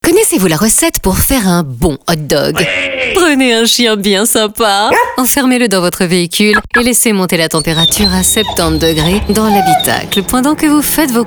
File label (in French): radio; mediaone